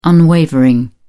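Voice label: "unwavering", English, British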